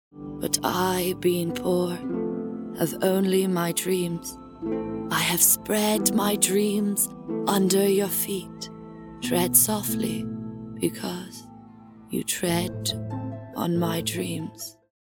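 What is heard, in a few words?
English, Character, Irish; GoLocalise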